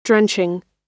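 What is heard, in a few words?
"drenching", American English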